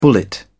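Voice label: "bullet", British English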